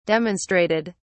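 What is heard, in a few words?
"demonstrated", British English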